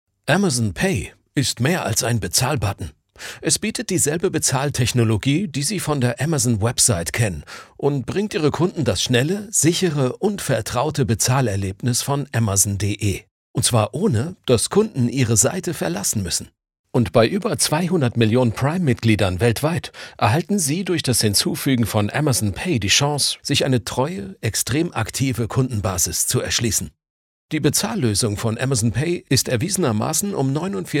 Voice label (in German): sachlich klarend; AmazonPay B2B